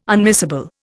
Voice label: "unmissable", Indian